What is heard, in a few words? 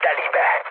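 Hungarian bomb success mood low, speech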